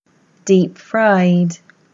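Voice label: English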